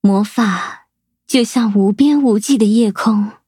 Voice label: loading, zh-hant; Cookie Run

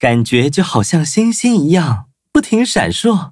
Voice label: upgrade, zh-hant

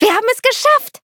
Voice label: victory, de

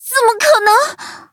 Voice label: defeat, zh-hant; cookierun

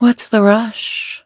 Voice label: touch, en; Cookie Run

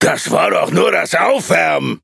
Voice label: victory, de; cookierunwiki

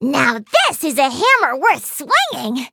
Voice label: eng menu tap; bacon roll; Cookie Run

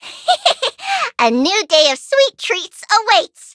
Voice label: touch, en; cookie run

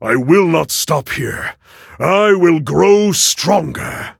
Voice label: levelup, en; cookie run

